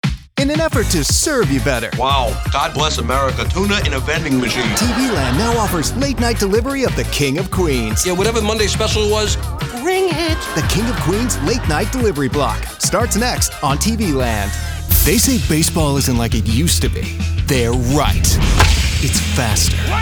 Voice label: Promo